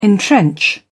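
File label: "entrench", English, British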